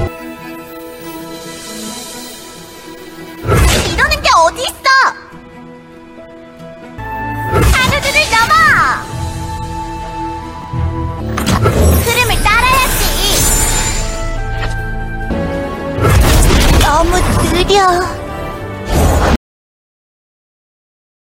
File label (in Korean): 10세 발랄하고 항상 즐거운 물고기 요괴; LOR 날쌘 물지느러미